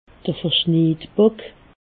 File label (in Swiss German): "verschniidbock", alsace